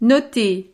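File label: "noter", French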